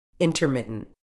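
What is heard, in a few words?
"intermittent", British English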